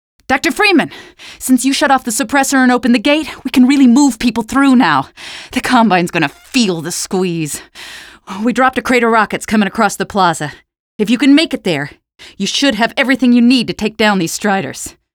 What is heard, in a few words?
rockets, female; Half-Life 2; streetwar; nexus